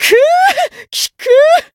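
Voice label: Voice healed, Japanese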